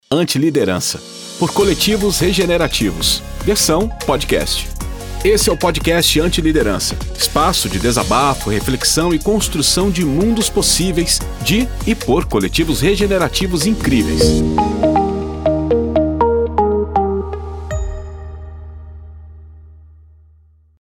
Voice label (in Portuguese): Portuguese Brazilian podcasts